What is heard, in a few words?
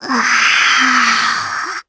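Vox, voices, Japanese; Gremory; kingsraid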